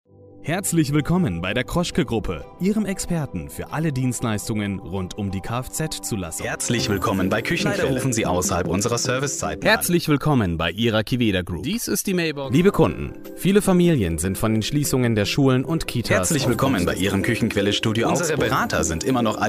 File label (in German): Telefonansagen Warteschleife Mix